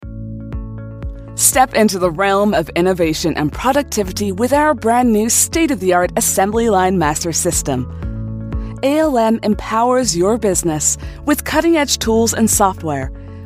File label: northern voice corporate american accent